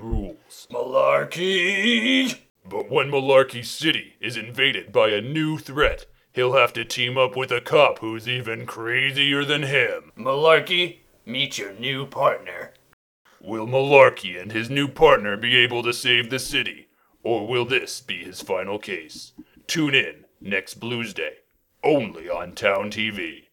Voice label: police show voiceover